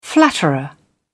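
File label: "flatterer", British English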